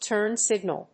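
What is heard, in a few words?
"turn signal", English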